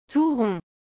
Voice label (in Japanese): "tout rond", フランス語